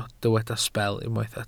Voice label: "sbel" Welsh

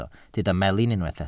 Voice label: "melin", Welsh

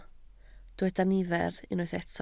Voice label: "nifer" Welsh

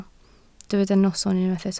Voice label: "noson" Welsh

